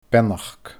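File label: "beannachd", Scottish Gaelic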